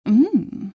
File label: happy; queenofpain